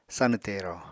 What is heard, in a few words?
"sanw tero", apatani